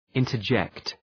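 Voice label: "interject", English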